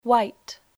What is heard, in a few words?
"white", English